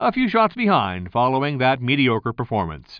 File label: commentator